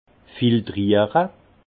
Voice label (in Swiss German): "filtriere", alsace; vin; filtrer